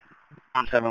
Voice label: Police Sheriff Dispatch